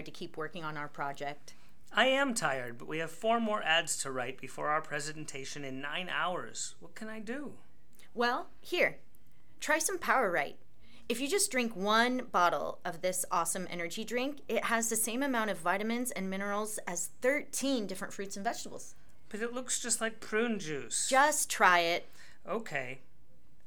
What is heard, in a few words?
Conversation